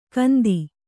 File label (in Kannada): "ಕಂತಿ", Kannada